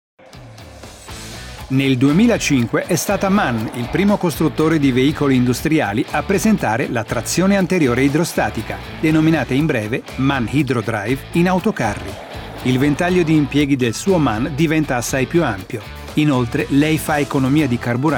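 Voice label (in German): Italienisch, Neutral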